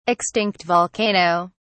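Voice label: "extinct volcano", British English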